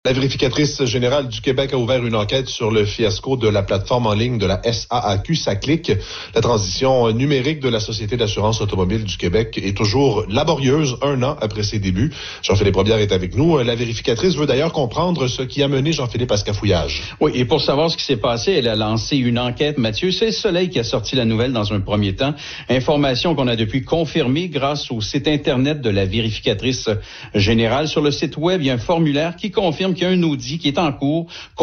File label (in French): radio; saaq